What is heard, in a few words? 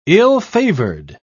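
"ill-favoured", English, British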